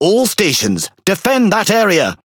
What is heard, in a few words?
Pirate Speech Quick Commands Ally Point; World Of Warships